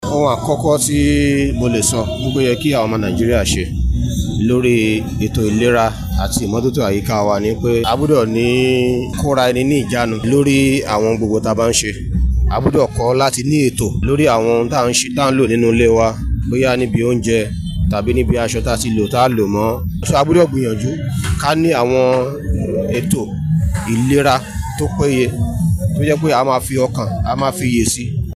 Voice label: YORUBA; clean up bodija market; fm partners fairaction charity foundation